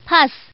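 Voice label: Woman, "don't want"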